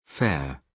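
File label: "fare", British English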